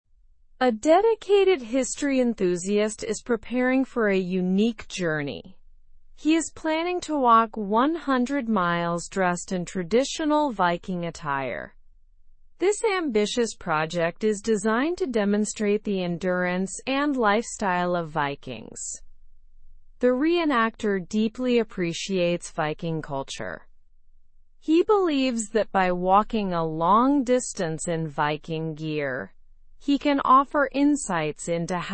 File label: english through news upper intermediate; history enthusiast plans 100 mile viking walk; slow